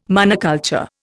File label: "monoculture", Indian